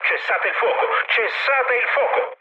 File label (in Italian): player friendly fire, mood low, speech it